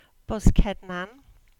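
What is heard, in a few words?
"Boskednan", Cornish; place-names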